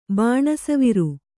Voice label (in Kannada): "ಬಾಣಸವಿರು", Kannada